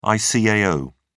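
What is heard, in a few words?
"icao", British English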